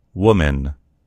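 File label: "woman", American English; gcompris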